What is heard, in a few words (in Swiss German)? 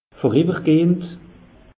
"voriwwergehend", alsace; meteo